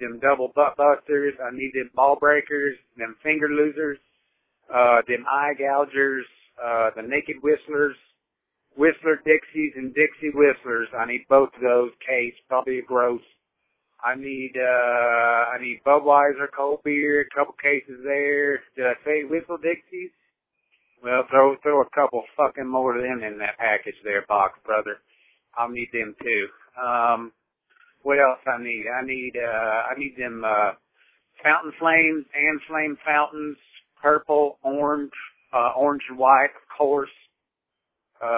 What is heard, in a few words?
fireworks voicemail